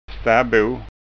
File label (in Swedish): "stabo", Skånska